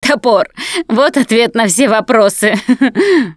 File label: take frying axe, russian, Survivor Voice Producer